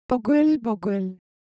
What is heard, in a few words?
Korean Sound, ideophones